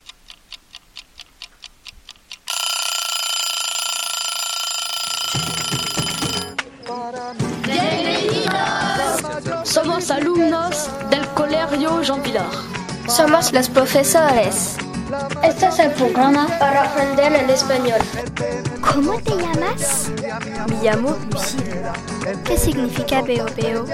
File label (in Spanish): radio flamenco